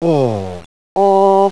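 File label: open mid back rounded; london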